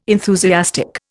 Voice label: "enthusiastic", Indian

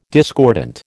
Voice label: "discordant", American

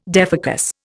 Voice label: "defocus", Indian

